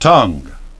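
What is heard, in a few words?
"tongue", English pronunciation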